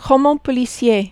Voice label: "roman policier", French